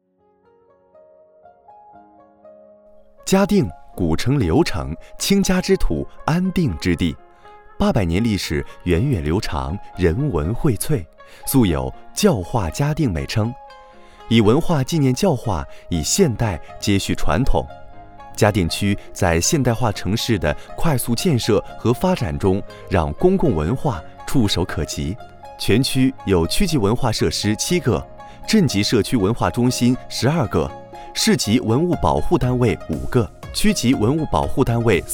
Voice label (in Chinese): 宣传片 城市 清新; 嘉定